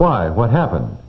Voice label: "why what happened", male